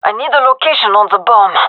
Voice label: query bomb, gendermerie fem; vo